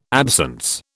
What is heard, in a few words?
"absence", British